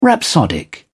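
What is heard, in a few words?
"rhapsodic", British English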